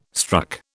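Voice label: "struck", British English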